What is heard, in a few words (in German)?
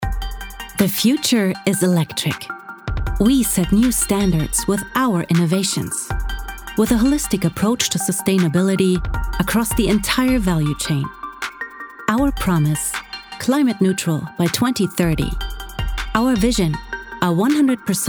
Corporate Werbung Englisch